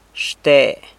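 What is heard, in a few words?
"xte", of, San Lucas Quiaviní Zapotec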